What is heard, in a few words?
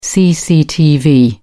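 "cctv", British English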